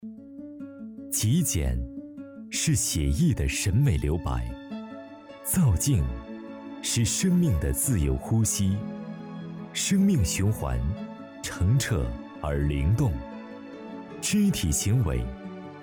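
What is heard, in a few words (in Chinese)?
广告浑厚意境; 超级舞团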